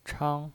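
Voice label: "chang", Mandarin Chinese, first tone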